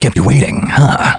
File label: battlecry; snake